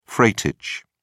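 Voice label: "freightage", British English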